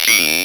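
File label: ROBVOX, robot voice; X-Static Goldmine 1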